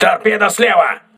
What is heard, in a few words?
voice message torpedo left, crew ships russian